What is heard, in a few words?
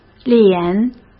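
"脸", Mandarin Chinese; hsk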